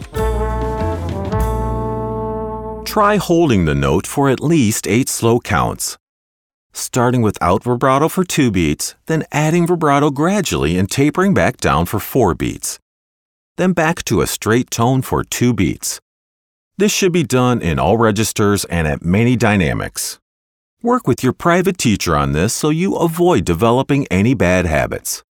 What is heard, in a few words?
Vibrato